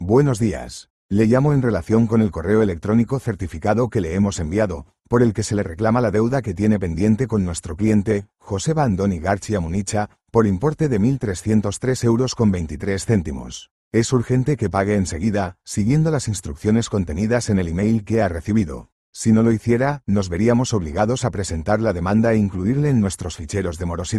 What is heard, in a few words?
audio call